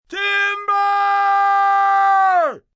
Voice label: Timber M, male; Yelling Sound Effects; Calls, caution